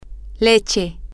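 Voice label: milk, Spanish, female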